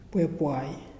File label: "earth", Muyuw; Lae Unitech